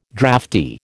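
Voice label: "drafty", American English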